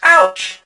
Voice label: hurt